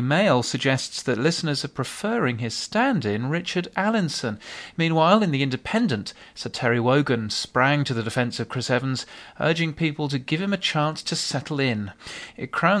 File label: radio news test